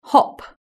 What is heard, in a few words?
"hop", british english